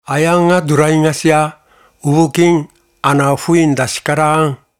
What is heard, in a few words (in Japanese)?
Yonaguni, しまくとぅば; アヤカ゚ ドゥライカ゚シャ 大木ウプキ; アナ インダ シカラ アン。; 与那国の言葉